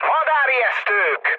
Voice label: enemy detected, speech hun; War Thunder